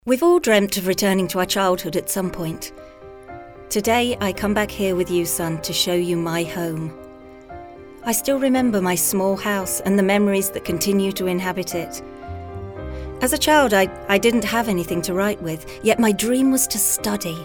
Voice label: female british english ngo comercial; ideasonora